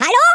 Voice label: "HELLO", German; Worms Armageddon